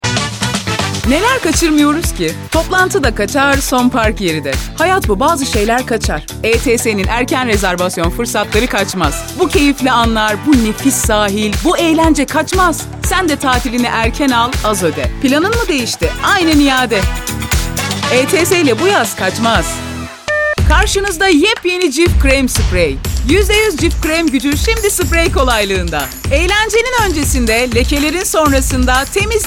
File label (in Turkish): reklam